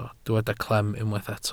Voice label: "clem", Welsh

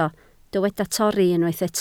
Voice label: "torri", Welsh

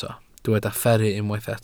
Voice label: "fferru", Welsh

